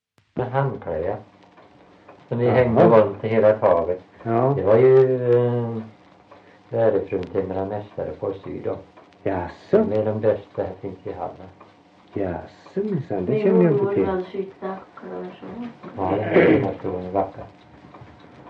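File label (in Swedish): Värö Halland, Svenska sagor dialekter; Folkminnen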